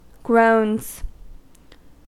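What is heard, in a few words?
"groans", US English; pronunciations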